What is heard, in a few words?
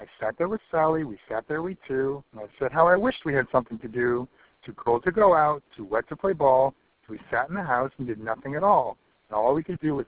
with NO background noise